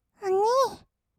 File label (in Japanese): おにぃ, kawaii voice; ASMR; 二人称